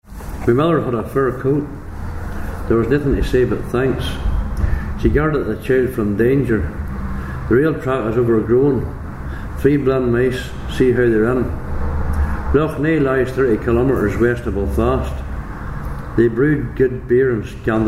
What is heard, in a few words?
sentences, dialects ni